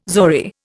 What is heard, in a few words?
"zori", Indian